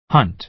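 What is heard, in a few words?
"hunt", American English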